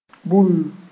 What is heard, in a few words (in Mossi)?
"bul", moore